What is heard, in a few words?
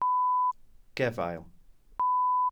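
"gefail", Welsh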